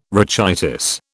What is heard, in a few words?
"rachitis", British